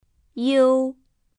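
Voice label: "iu-iou", Chinese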